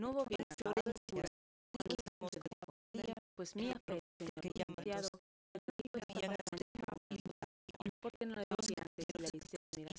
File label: female; SASSEC07